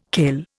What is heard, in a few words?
"kail", Indian